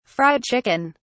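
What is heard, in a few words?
"fried chicken", British English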